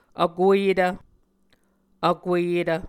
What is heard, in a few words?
"vkueyetv", Muscogee